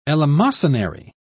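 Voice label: "eleemosynary", British English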